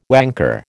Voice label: "wanker", American

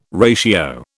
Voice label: "ratio", British

